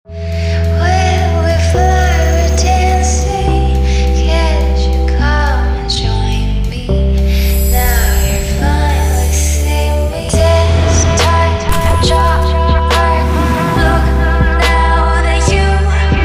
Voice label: 广告 化妆品 优雅; 卡姿兰粉底液

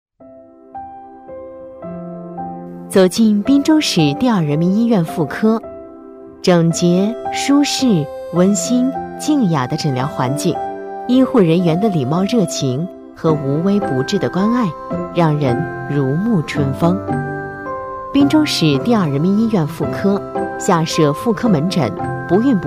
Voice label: 温暖; 滨州市医院

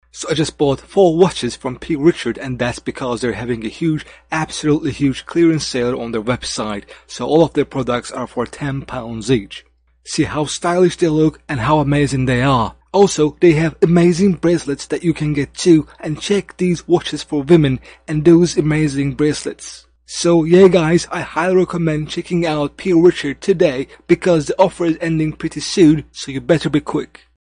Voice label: 土耳其语男 外语 小语种 样音

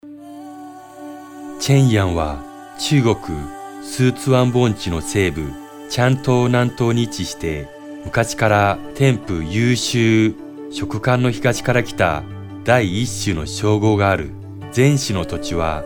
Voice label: 日语男 外语 抒情; 小语种

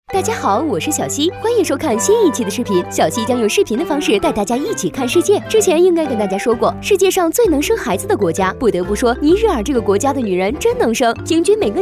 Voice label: 女 宣传片 病毒 娱乐

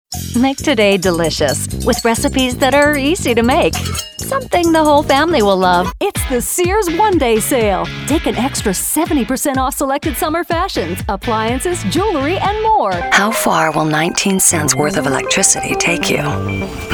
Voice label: 外籍女英 外语 美式英语 活力

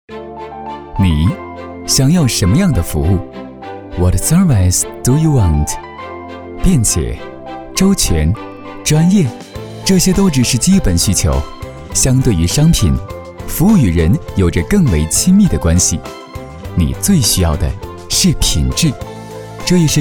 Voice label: 男国 宣传片 企业 时尚; 优选公司

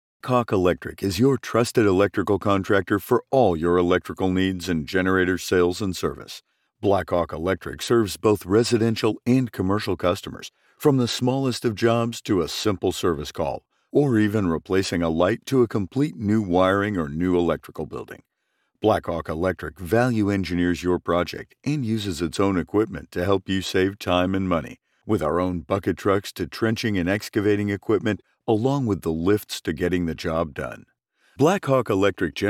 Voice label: 美式英语 有声读物类, 外籍男英; 外语

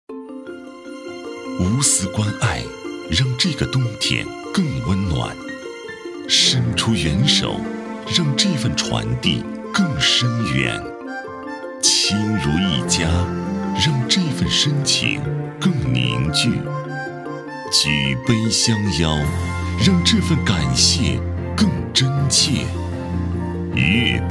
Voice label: 专题, 颁奖, 公司年会, 温情

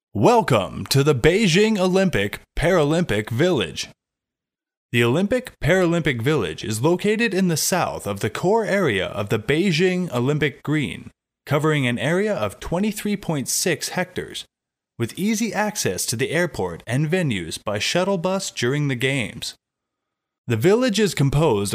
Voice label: 外籍男英 美式英语 服务指南 沉稳; 外语; Village

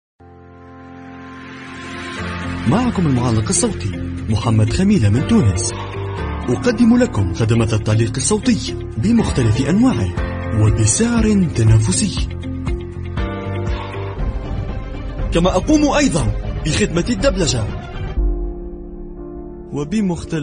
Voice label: 阿拉伯语男 外语 小语种 年轻

